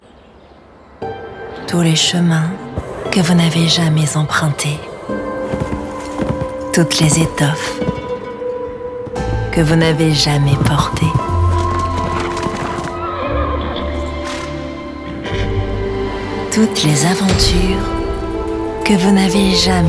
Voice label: Commercial